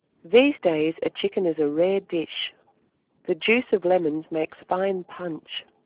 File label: female speex vbr